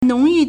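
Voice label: "nong2yu4", Mandarin Chinese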